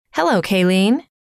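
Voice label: hello, female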